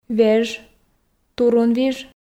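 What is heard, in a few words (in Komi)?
"веж турунвиж", Komi, female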